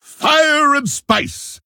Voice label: Male Pandaren Attack